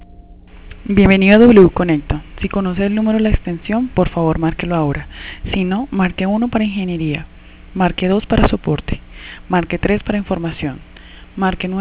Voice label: ivr recording